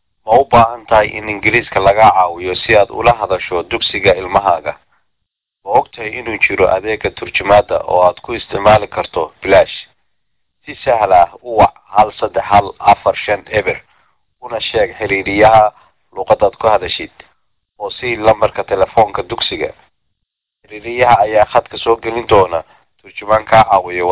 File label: somali; public schools; going to a public school